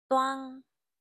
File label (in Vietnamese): "toang", vietnamese